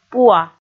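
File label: "пуа", Komi, female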